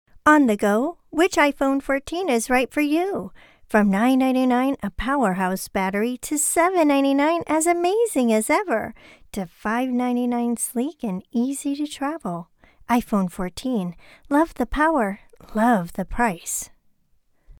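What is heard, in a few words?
English, US, female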